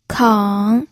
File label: "keng3", pinyin